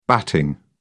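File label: "batting", British English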